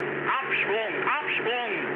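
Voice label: German bailout mood med, speech; War Thunder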